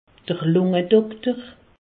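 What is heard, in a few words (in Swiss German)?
"lungedokter", Alsatian; medecine; pneumologue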